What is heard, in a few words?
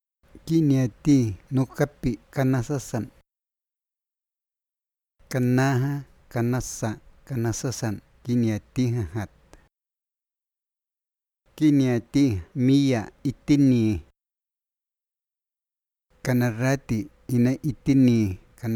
iquito verbos